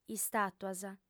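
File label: "istàtuas", female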